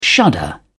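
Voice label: "shudder", British English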